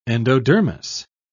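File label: "endodermis", British English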